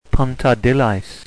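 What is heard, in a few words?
"pontarddulais", Welsh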